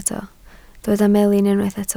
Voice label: "melin", Welsh